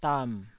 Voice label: "dam3", Taiwanese

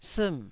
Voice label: "siim4", Taiwanese

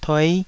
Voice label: "tuei5", taiwanese

